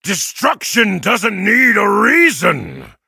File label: levelup, en; cookie run